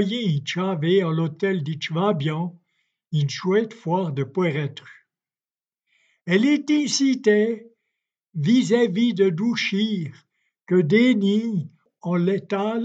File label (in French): lettre patois